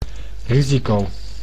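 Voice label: "risico", Dutch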